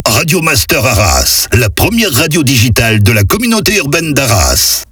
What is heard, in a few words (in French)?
speed, Voix Off